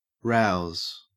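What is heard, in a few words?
"rouse", Australian English; pronunciations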